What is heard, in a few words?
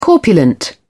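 "corpulent", British English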